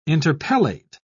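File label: "interpellate", British English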